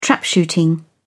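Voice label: "trapshooting", British English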